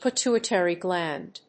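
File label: "pituitary gland", English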